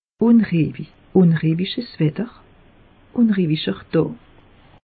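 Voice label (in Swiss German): "unruehisch", Alsatian; meteo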